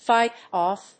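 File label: "fight off", English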